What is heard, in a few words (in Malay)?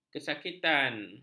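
"kesakitan", Malay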